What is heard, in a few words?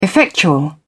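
"effectual", British English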